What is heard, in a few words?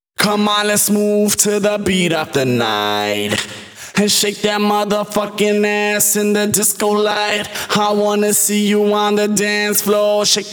Rap Vocals WET, 128 BPM; Vengeance Samples; Vocal-Hook Kits; Song Kit; Let's Move